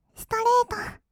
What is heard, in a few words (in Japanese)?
"ストレート", kawaii voice; 1200 kawaii voice!!; 色々なワンショット